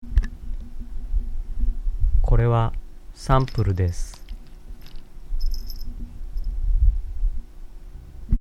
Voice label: voice, noise